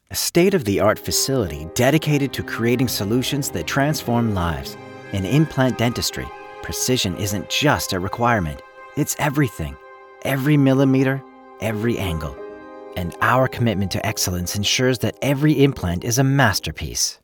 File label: Implant Dental w music